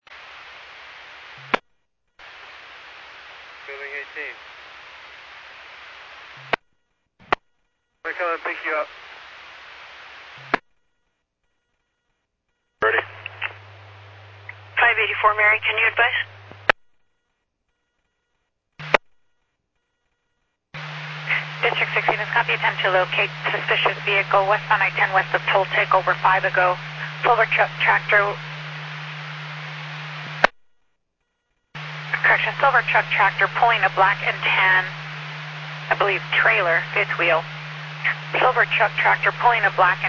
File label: Medevac, scanner; NativeAirAmb